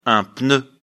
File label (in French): "pneu", lecture fr